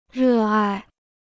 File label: "热爱", Chinese